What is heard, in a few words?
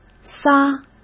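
"撒", Mandarin Chinese; chinese flashcards; hsk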